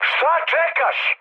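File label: Serbian attack position mood med, speech; War Thunder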